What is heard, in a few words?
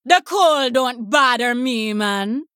Female Troll Play